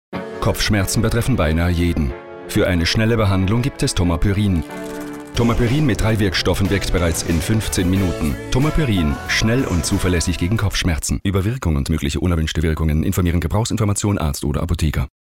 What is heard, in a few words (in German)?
Werbung; Thomapyrin